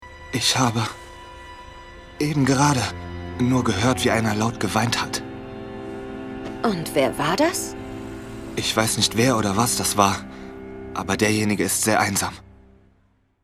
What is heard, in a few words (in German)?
Werwolf, Game, Lip-Sync (Synchron), German; Ancient Magus Bride - Rolle: Isaak